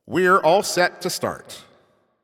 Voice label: Ball Placed in Start Ring, Announcer